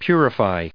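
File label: "purifying", us English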